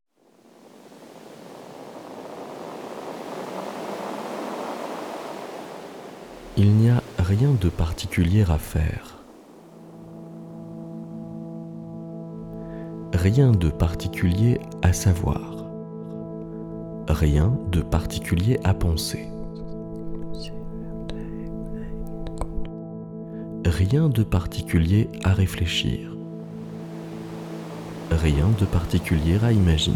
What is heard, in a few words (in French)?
voix sommeil